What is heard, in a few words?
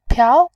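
"piao", Mandarin, tone 2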